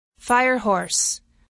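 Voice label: "firehorse", English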